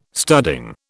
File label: "studding", British